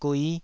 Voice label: "gui5", taiwanese

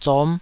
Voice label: "zom5", taiwanese

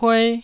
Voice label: "pue1", Taiwanese

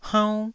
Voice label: "haunn1", taiwanese

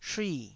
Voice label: "shui4", taiwanese Syllables

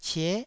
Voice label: "cie9", Taiwanese

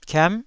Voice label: "kiam9", taiwanese syllables

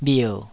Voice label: "bhier3", taiwanese

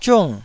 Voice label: "ziung4", taiwanese

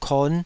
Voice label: "kon5", Taiwanese syllable

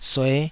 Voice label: "sue5", taiwanese Syllables

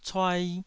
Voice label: "cuai2", Taiwanese syllable

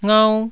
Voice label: "ngau1", taiwanese syllables

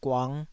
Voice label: "guang5", Taiwanese syllable

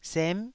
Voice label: "sem9", taiwanese syllable

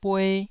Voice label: "bue1", taiwanese Syllables

